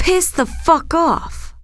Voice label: cuss, female, profanity; kingpin

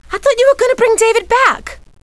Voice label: scold, female; kingpin loc; bambi

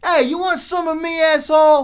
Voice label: cuss, profanity, male; kingpin

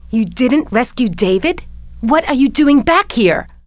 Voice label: scold, female; kingpin; bambi

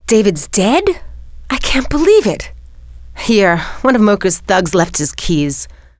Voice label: female failure; kingpin; bambi

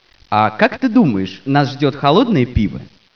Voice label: "hello", scientist

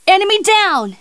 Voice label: FEMALE ENEMY DOWN; combatarms2

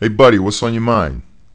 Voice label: male neutral talk; kingpin

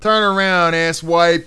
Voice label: cuss, profanity, male; kingpin loc

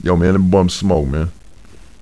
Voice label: male neutral talk; kingpin loc

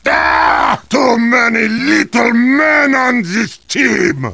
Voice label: heavy jeers; tf2

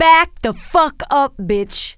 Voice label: cuss, female, profanity; kingpin

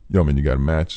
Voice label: male neutral talk; kingpin

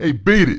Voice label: "beat it", profanity, male; kingpin

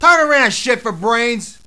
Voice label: cuss, profanity, male; kingpin

